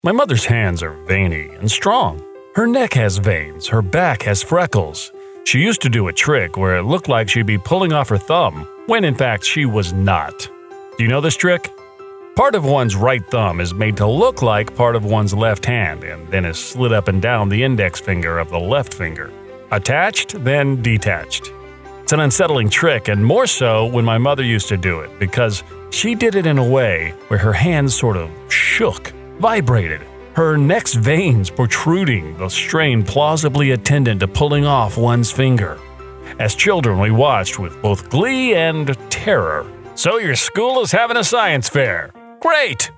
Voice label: narration

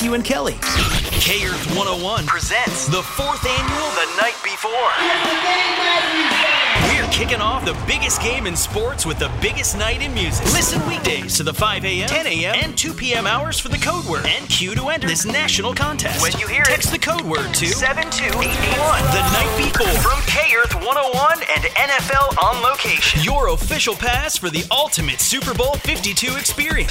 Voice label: Radio Imaging VO

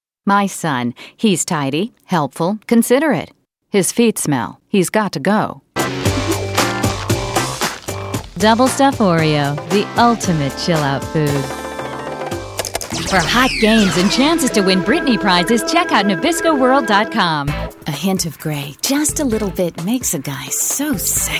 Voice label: Commercial

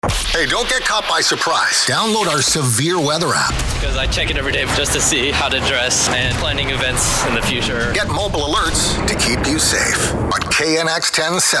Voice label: news imaging

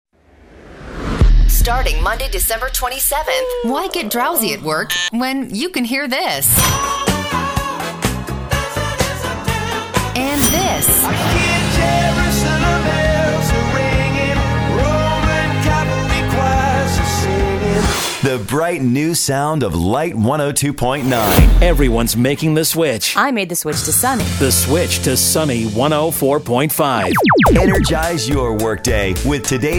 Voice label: imaging ac

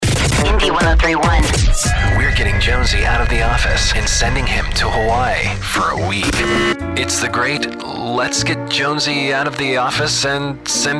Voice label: imaging

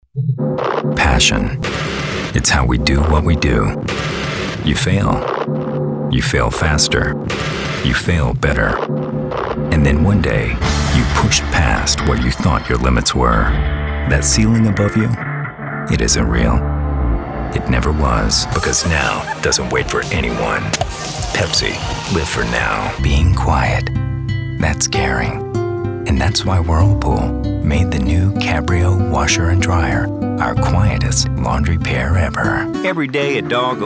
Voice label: commercial

